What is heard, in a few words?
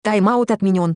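Russian Abort Timeout; EFI Audio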